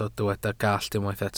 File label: "gallt", welsh